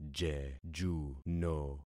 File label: "jejuno", concat syllables; Affixing experiment